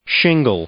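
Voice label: "shingle", English, male; noun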